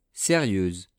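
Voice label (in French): "serieuse", French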